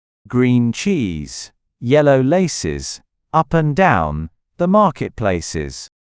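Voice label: male British; Green Cheese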